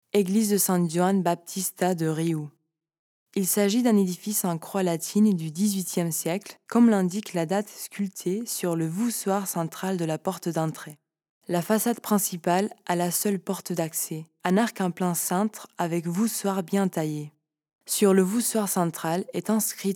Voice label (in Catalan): locucio frances; sant esteve guils